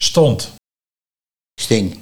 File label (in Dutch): "Stond - Sting", Nieuw lekkerlands dialect; sting, stong